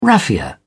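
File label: "raffia", British English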